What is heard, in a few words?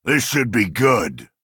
orc warrior enter play